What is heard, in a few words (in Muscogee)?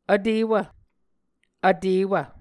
"vtewv", Muscogee